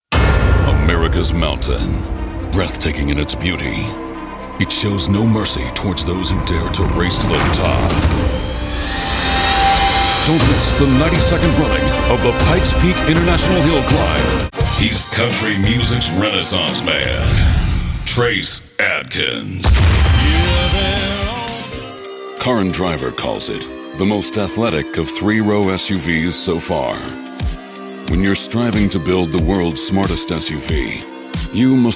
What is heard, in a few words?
Commercial